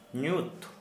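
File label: Santali male dark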